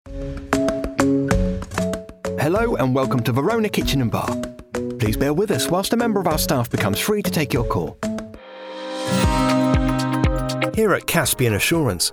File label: english british ivr